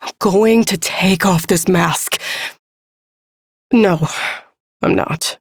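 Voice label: Ambient Female Sedentary, Dialog; Duviri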